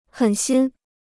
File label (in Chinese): "狠心", Chinese